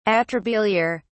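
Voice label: "atrabiliar", English, British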